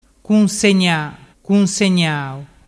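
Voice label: "cunsegnagnau", Vernazzese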